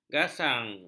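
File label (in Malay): "gasang", Malay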